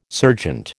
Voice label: "serjeant", American

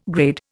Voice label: "grade", Indian

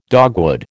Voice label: "dogwood", American

